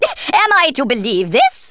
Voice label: flawless, Speech Thespian; Worms Armageddon